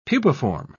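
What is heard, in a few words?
"pupiform", British English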